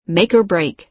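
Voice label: "make-or-break", American English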